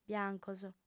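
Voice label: "biancos", female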